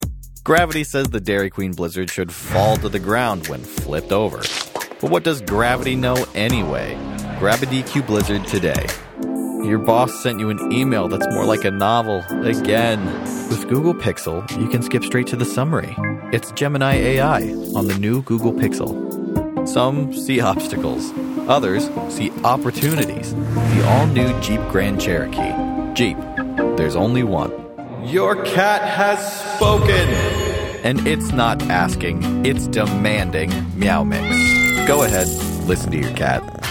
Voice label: Commercial